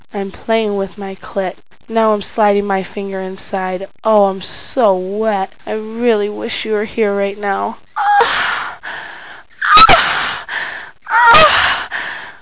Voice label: reading from script, silly lo-fi orgasms, vocals and spoken word